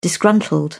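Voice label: "disgruntled", British English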